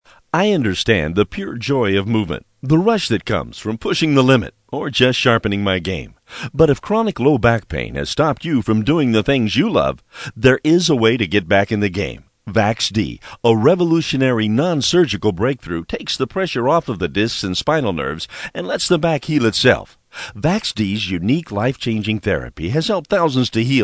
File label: Broadcast Commercial